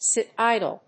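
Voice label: "sit idle", English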